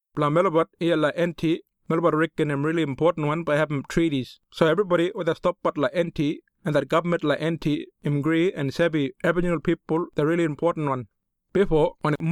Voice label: Eastside Kriol